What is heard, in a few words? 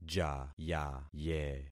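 "jayaye", concatenated syllables; Affixing experiment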